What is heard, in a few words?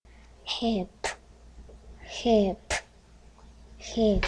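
"hip", spoken word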